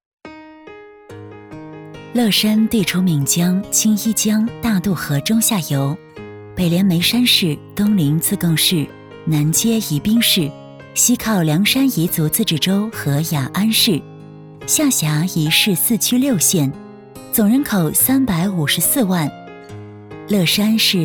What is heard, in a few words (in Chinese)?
专题 亲切甜美; 乐山